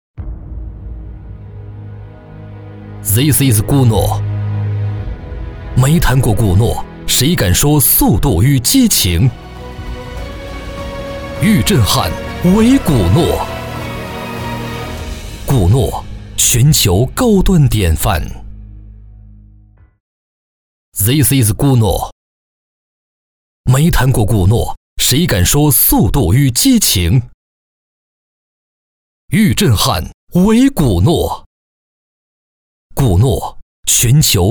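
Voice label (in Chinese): 国语 男声 广告 大气浑厚磁性 沉稳 积极向上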